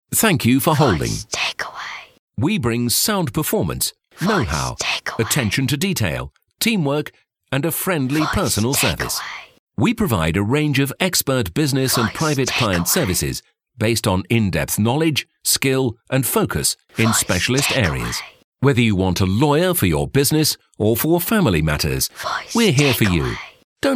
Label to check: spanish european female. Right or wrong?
Wrong